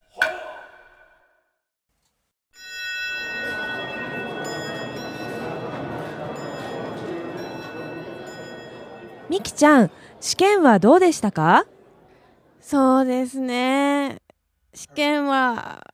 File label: dialog; JapanesePod101; Beginner Season 1